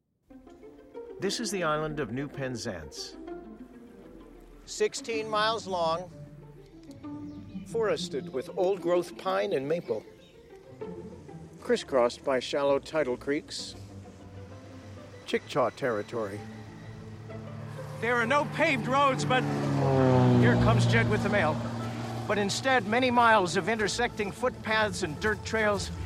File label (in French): Le narrateur